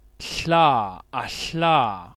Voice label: voiceless lateral fricative, phoneme